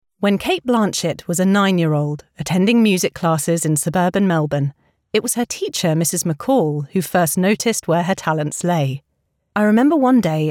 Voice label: english british podcasts

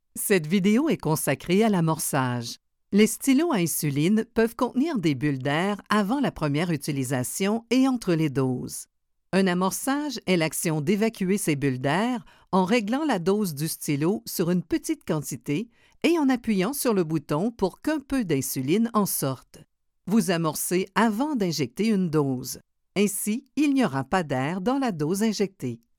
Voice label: french canadian medical narration

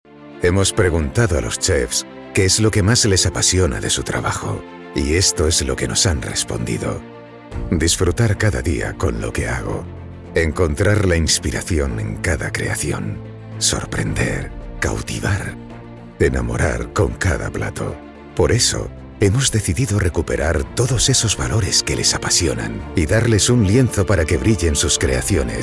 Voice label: spanish e-learning

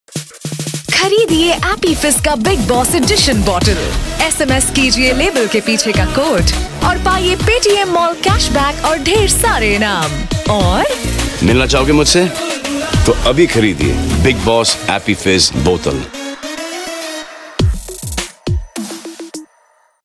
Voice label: hindi commercial, Hindi Voice Actors; professionelle indische Sprecherin

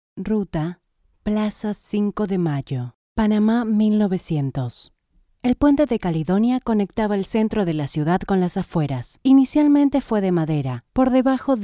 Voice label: spanish latin american narration

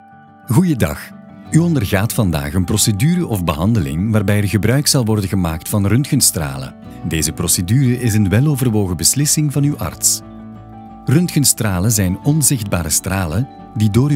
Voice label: flemish narration

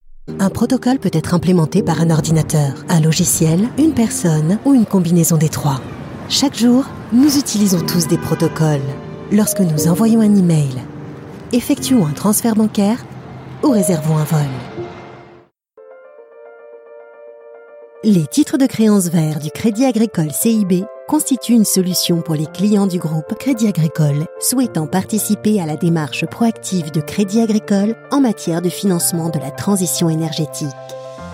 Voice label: french corporate videos

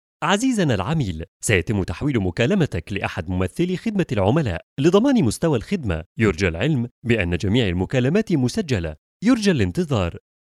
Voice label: arabic ivr

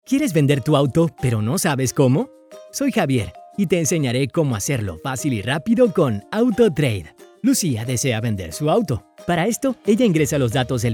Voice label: Spanish Latin American corporate videos

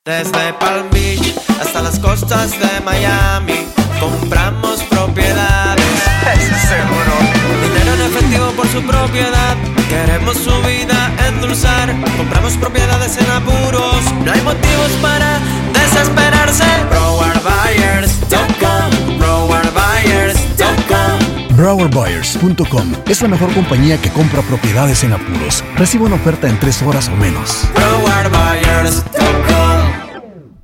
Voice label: spanish latin american real estate; BILINGUAL native; United States

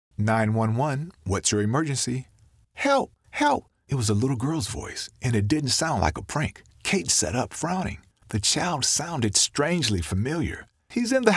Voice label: english american audiobooks; dublador profissional afro-americano que fala inglês

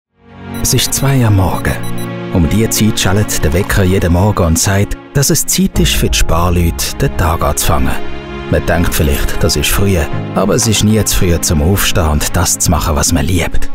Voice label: german switzerland narration

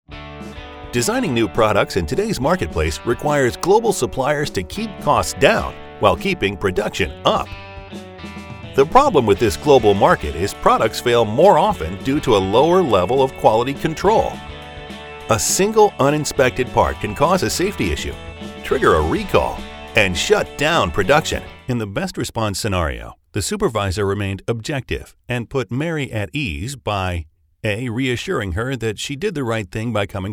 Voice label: english american e-learning

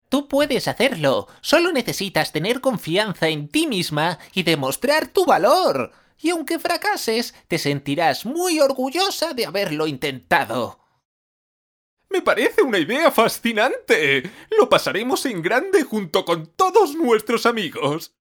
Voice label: spanish animation

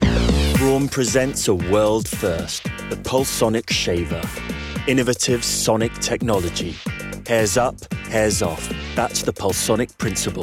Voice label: english international promos

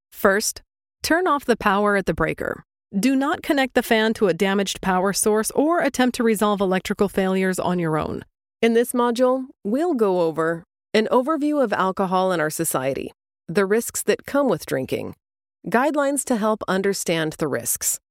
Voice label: english canadian e-learning

